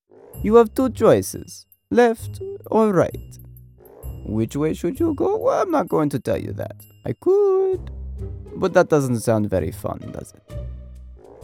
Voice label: Quirky Animation Character